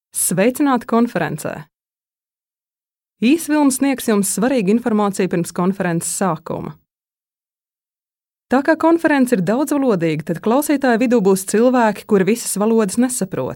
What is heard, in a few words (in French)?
letton